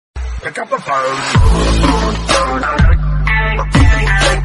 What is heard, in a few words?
Pick Up Your Phone Remix Notification; Mr Bean